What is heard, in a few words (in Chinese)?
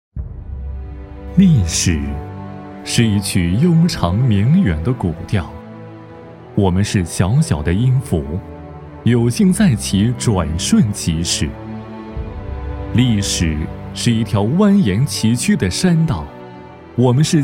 男,汇报抒情; 行路致远